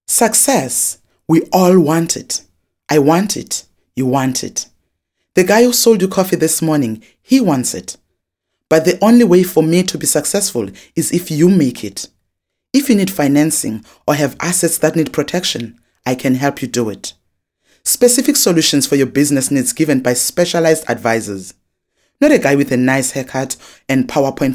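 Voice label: english South African female narration; voice demos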